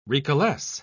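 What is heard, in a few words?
"recalesce", British English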